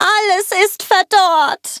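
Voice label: defeat, German; cookie run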